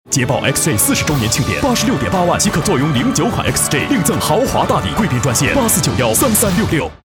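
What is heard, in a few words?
Chinese commercial, mann, kinesisk mandarin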